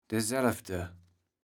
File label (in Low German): "deselvde", kaevels platt